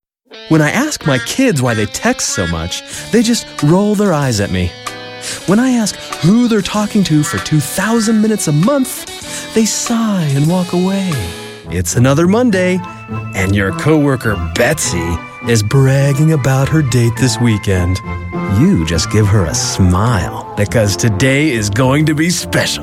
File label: Commercial